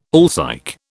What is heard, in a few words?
"alsike", British